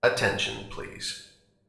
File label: attention, PA